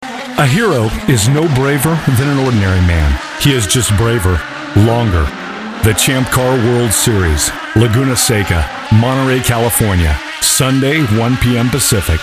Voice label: tv network promo; CHAMP CAR WORLD SERIES-ESPN